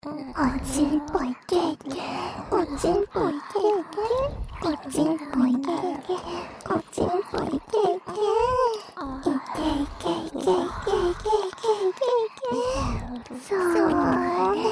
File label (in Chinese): 射精吧～～♪＋雙耳邊吸吮包皮垢手指; 附錄迴圈（自慰輔助）; 想被雌小鬼露比瞧不起！